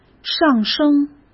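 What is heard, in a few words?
"上升", Mandarin Chinese; hsk; chinese-flashcards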